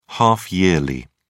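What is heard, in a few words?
"half-yearly", British English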